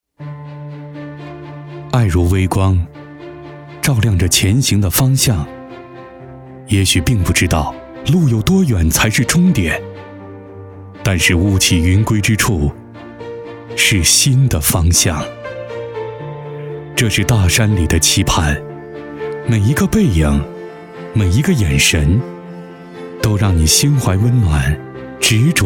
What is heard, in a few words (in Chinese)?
男 专题 温暖厚重; 助学专题